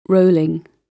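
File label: "rolling", British English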